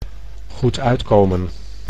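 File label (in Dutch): "goed uitkomen", Dutch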